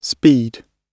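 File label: "speed", British English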